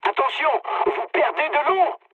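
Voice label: damaged water, mood high, French; War Thunder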